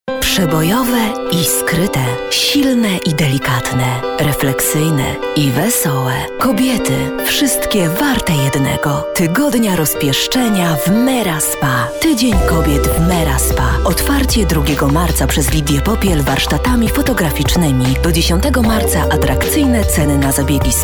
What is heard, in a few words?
F COMMERCIAL